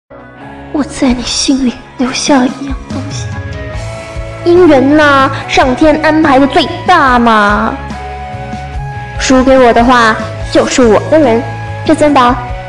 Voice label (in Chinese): 配音